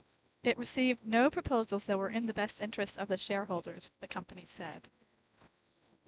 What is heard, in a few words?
babble; DNN